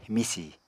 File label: "misì", Apatani